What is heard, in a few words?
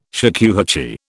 "shakuhachi", British